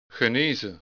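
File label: "genezen", Dutch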